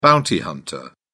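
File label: "bounty hunter", British English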